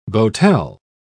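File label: "boatel", British English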